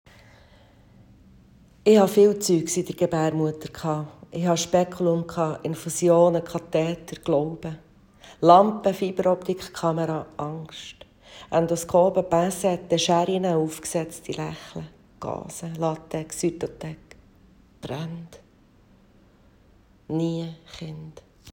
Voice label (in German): Instrumente Berndeutsch